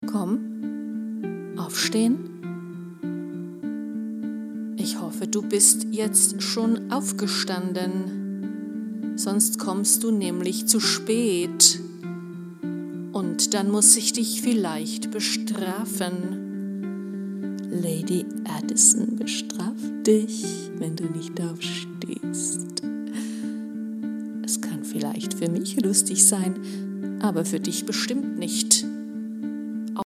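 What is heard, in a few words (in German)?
Weckruf, erotische Hypnose; Ohrgasmus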